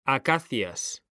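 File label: "acacias", Spanish, male; mekanta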